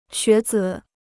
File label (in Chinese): "学子", Chinese